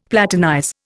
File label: "platinize", Indian; Dictionary